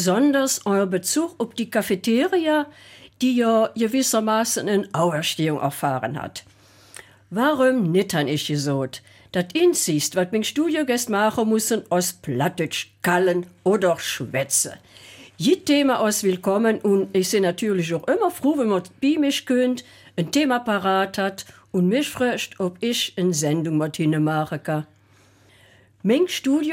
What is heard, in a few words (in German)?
Eifeler Mundart